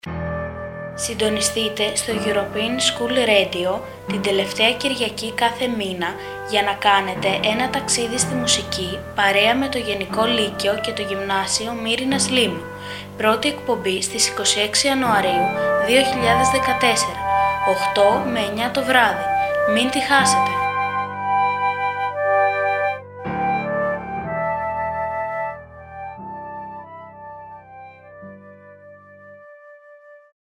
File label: spot; gel gymnasio myrinas 1 taxidi sth mousiki 20-21